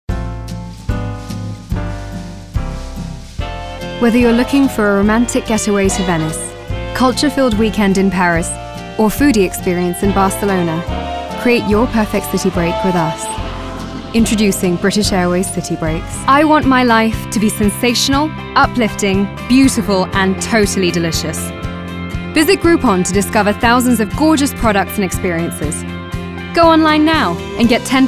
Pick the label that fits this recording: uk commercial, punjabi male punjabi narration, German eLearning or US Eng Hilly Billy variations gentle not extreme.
uk commercial